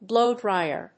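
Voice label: "blowdryer", English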